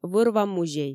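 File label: "вӧр-ва музей", Komi, female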